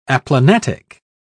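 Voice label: "aplanatic", British English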